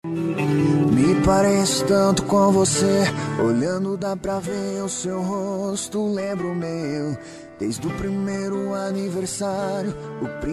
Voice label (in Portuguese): dia dos pais para mae que e pai voz masculino